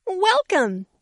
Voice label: bonjour, voix